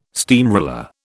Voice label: "steamroller", British